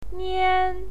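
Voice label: "nian" first tone, pinyin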